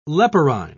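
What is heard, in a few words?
"leporine", British English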